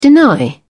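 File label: "deny", British English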